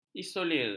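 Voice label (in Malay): "isolir", Malay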